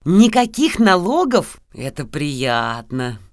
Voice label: Peasant Female